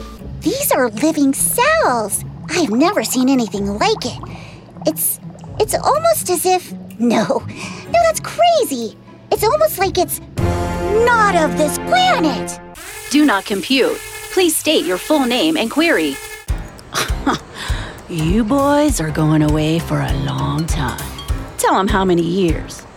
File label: English American others